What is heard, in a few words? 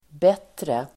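"bättre", Swedish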